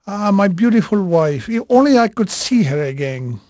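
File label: Portuguese male, voice; Pirates Of The Caribbean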